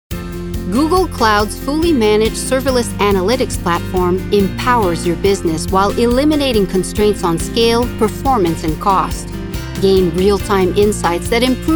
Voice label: CORPORATE